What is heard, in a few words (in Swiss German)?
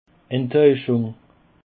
"enttaueschung", Alsace